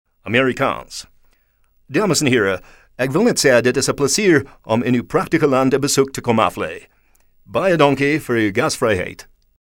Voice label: AFRIKAANS AMERICAN